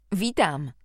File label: bonjour, voix